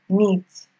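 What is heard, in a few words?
"meet", Nigerian Pidgin, female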